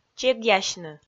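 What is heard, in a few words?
"чегъясьны", Komi, female; kpv audio dict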